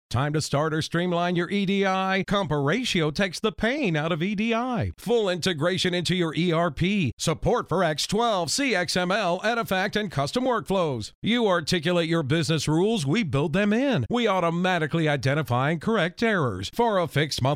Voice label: Radio; Compatrio January